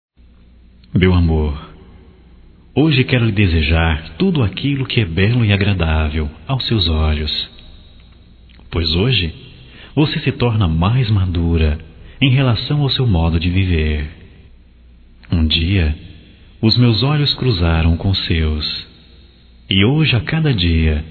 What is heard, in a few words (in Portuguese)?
Aniv romântico para mulher; Telemensagens 2017; Aniversarios